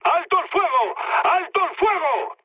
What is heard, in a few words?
player friendly fire, mood low, speech; War Thunder